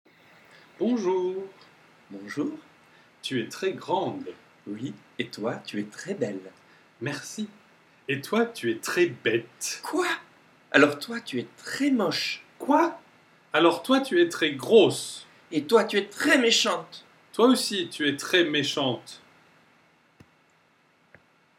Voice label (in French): dialogue deux femmes, French dialogues; masculine and feminine adjectives